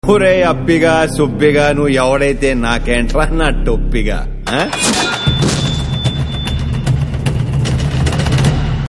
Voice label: Dialogue Ringtone; Krack; Ravi Teja